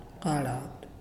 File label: "full", Muyuw; Lae Unitech